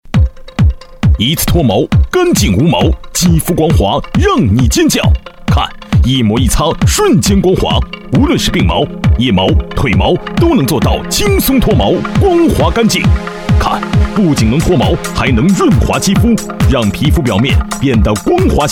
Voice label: 男,电视购物 脱毛

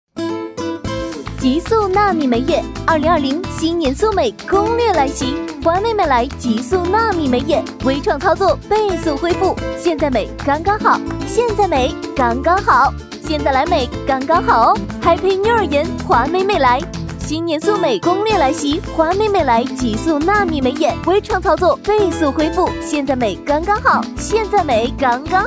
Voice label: 女 广告 医美促销

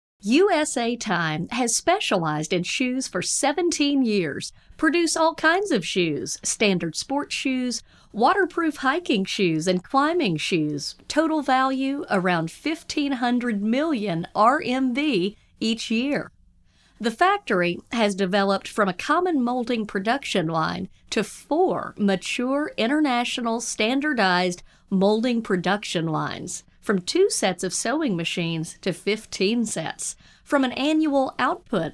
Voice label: 英语稿

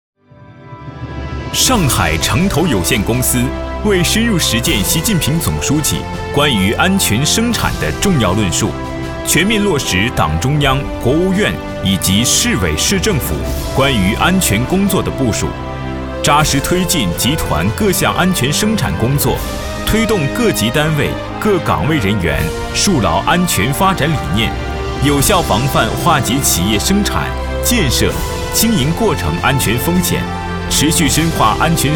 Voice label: 男 专题 党建; 上海城投

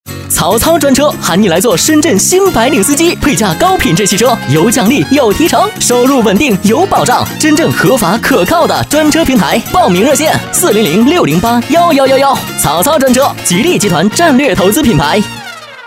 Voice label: 男 广告, 专车; 曹操专车

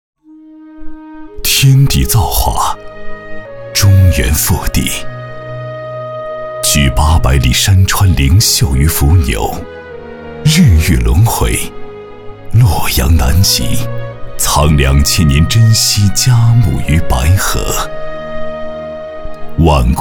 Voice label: 男 纪录片 古风大气; 千年银杏赋

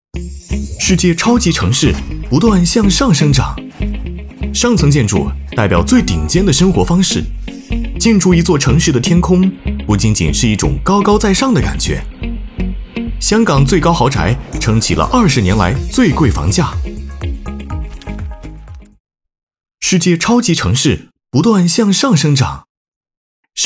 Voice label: 男 活力激情; 飞碟说MG

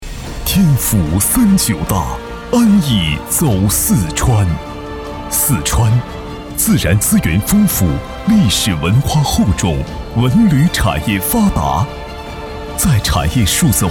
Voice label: 男 宣传片 宏伟大气

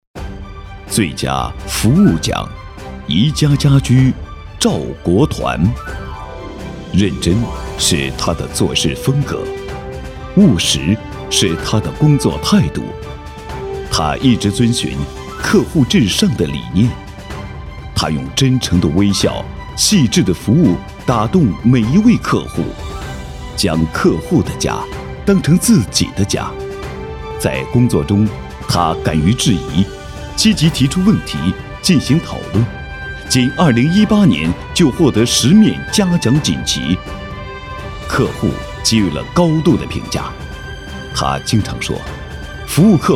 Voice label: 男 颁奖类 大气 年度人物; 宜家家居

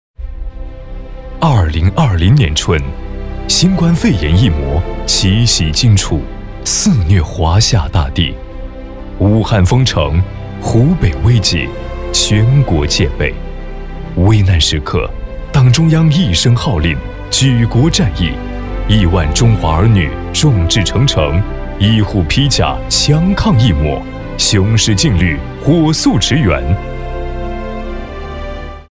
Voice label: 男, 专题, 大气, 抒情, 抗疫宣传片; 新冠肺炎抗疫宣传片

